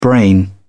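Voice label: "brain", British English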